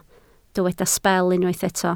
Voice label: "sbel", Welsh

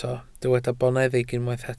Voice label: "boneddig", welsh

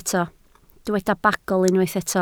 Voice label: "bagl", Welsh

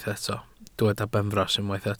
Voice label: "benfras", Welsh

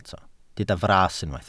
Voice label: "fras", Welsh

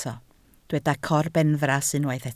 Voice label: "corbenfras", Welsh

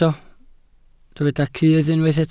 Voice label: "cudd", Welsh

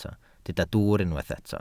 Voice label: "dwwr", Welsh

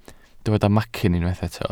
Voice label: "macyn", welsh

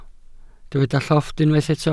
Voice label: "llofft", welsh

